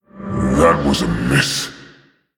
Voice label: Male Orc Oops